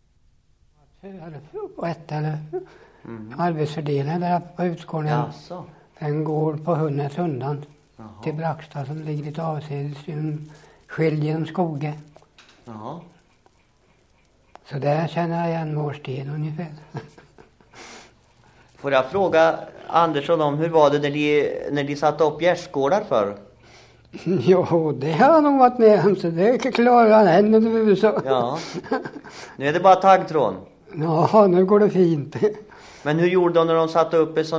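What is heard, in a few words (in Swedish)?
Östra Trollstad Östergötland, Svenska sagor, dialekter; Folkminnen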